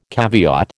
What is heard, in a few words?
"caveat", American